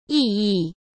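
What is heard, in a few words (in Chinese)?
"异议" Mandarin Chinese; chinese-flashcards; hsk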